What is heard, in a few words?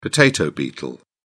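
"potato beetle", British English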